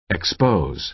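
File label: "expose", American English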